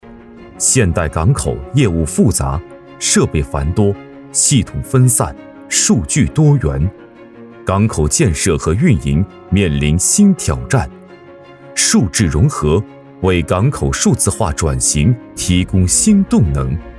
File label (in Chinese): 男 宣传片 大气科技感宣传; 智慧港口